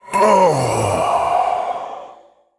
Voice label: Male Elemental Death

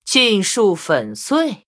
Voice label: Blood Elf, zh; Shattering Blast

